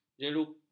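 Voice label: "jeluk", Malay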